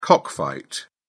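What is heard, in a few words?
"cockfight", British English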